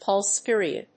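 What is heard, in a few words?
"pulse period", English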